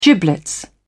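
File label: "giblets", British English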